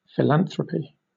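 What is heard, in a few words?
"philanthropy", British English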